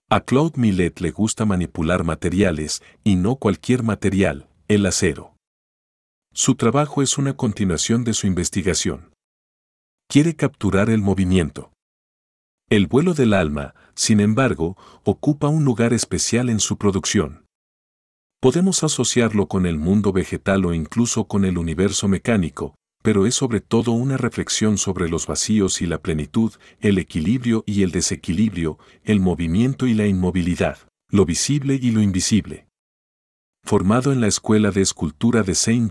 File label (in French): espagnol